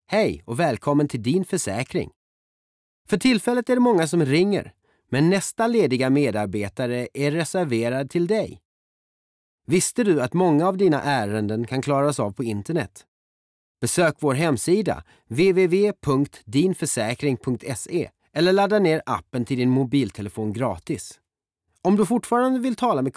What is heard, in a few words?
Swedish Telephone waiting loop